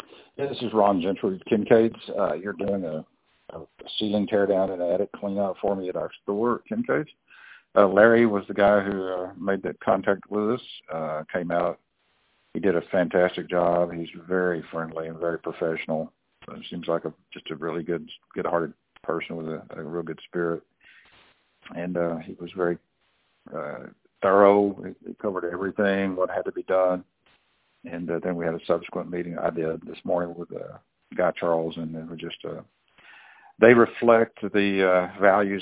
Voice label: testimonial